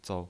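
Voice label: "zou" Mandarin Chinese pinyin voice, neutral tone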